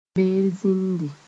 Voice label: "berzĩndi", Mooré